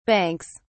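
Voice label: "banks", British English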